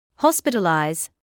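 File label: "hospitalise", British English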